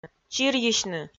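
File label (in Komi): "чирйысьны", Komi, female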